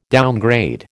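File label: "downgrade", American English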